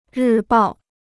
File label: "日报", Chinese